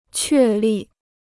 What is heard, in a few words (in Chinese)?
"确立", Chinese